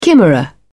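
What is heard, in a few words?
"chimera", English, British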